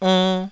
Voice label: "onn2", taiwanese

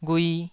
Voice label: "ghui2", Taiwanese syllable

